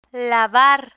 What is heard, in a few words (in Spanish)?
"lavar", Spanish